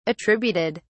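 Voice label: "attributed", British English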